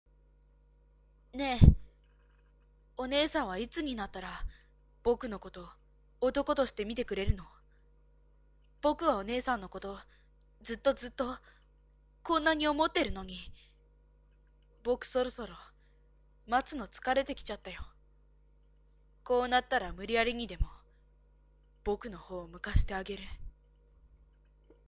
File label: boy